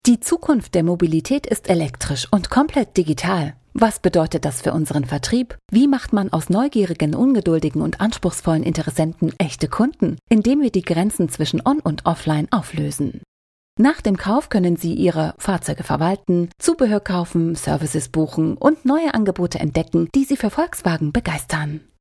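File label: german interactive voice response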